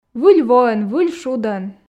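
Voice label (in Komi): выль воӧн выль шудӧн, Komi, female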